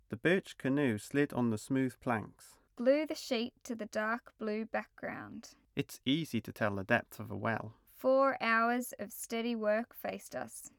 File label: speech stereo; LXST